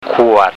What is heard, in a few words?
"kuad", Thai